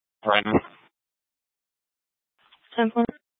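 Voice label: Tempe police department, scanner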